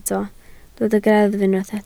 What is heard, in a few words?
"greddf", Welsh